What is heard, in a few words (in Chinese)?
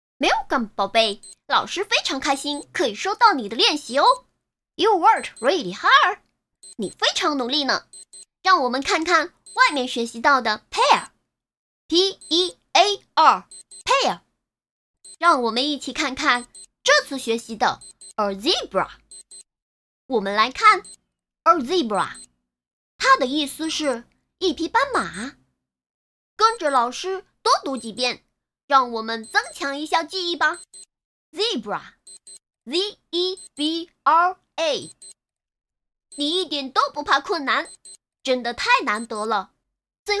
仿男童声青蛙